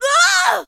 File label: hit, en; Cookie Run